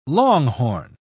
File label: "longhorn", pronounce